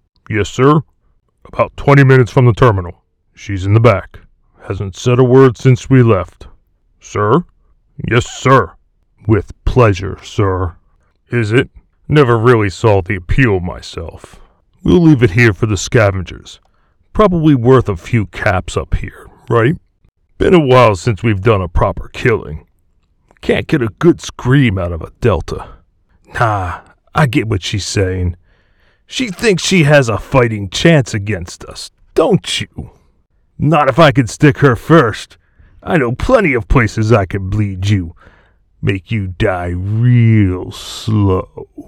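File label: Military Soldier Deep Voice Light Gruff General American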